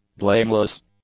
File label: "blameless", English; adjectives